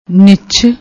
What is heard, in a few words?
"nichh", Punjabi